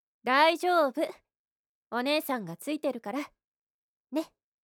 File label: lady kindly